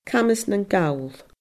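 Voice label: "Camas nan Gall", Scottish Gaelic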